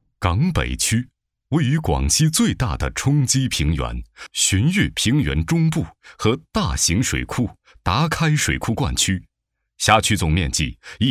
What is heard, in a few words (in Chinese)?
男 专题; 广西港北区